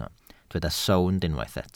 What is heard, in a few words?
"sownd", Welsh